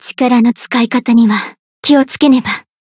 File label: Voice zodiac burst jp; tsukiha